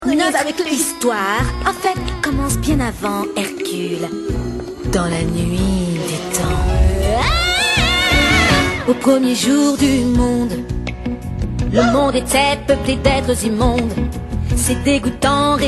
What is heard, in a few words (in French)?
VOIX PARLEE CHANTEE; HERCULE